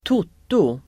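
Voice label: "toto", Swedish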